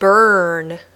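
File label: "burn", English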